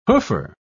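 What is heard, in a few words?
"hoofer", British English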